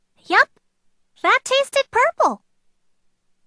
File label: lulu voice; league of legends